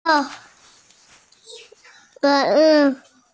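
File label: "huo3che1" Mandarin Chinese, female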